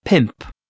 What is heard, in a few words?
"pimp", Welsh